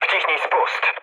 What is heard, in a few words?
attack position mood low, speech pol; War Thunder